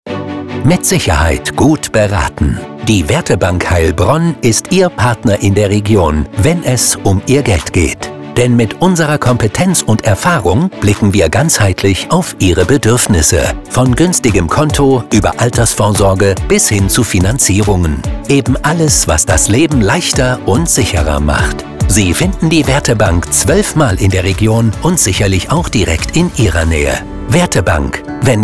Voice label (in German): Beispielspot Banken